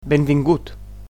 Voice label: "welcome", Catalan; Benvingut

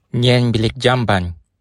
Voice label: toilet, bonggi

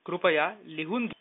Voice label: write, marathi; कृपया लिहून घ्या; krupayā lihun ghya

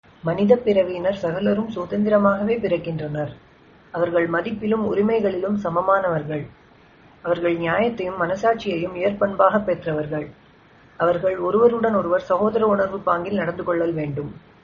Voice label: udhr tamil

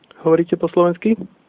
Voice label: do you speak, slovak

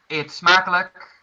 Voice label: bon appetit, dutch

